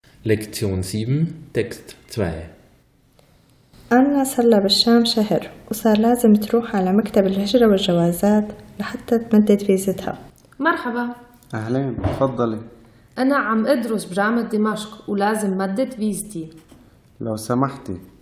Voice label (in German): syrisch-arabischer Dialekt; Visum verlängern; tamdīd ǝl-vīza